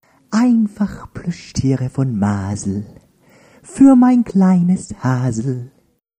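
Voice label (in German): Stimme Plüschtier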